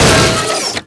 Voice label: scared